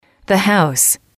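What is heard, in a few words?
"the house", English, female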